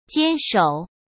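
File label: "坚守", Mandarin Chinese; hsk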